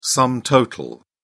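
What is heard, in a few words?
"sum total", British English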